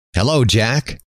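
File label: "hello", male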